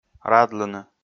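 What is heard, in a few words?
"радлыны", Komi, male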